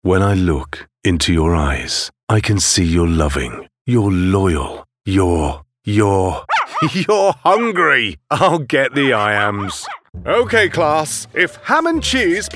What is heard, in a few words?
Commercial Showreel